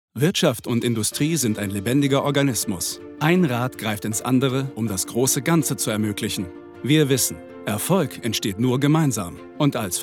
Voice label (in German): Imagefilm Industrie